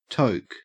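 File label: "toke", Australian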